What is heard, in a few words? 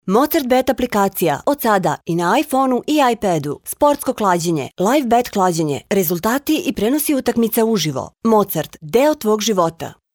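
Serbian female voice over, sales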